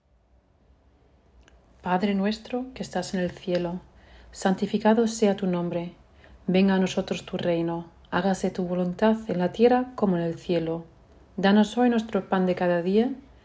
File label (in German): Vaterunser spanisch; Vater unser auf spanisch; Familienimpuls